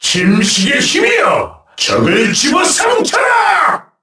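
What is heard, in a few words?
Vox Skill, Korean; heroes; KaselB; kings raid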